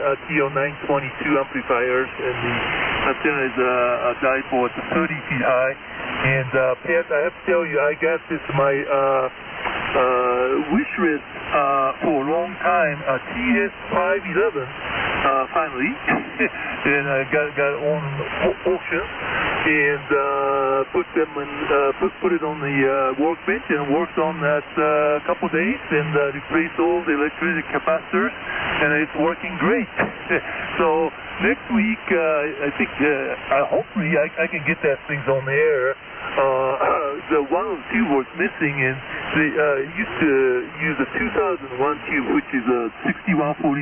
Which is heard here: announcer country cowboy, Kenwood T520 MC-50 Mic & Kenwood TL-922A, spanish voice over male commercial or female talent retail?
Kenwood T520 MC-50 Mic & Kenwood TL-922A